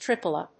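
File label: "triplea", English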